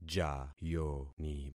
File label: "jayoni", concat syllables; Affixing experiment